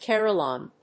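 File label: "carillon", English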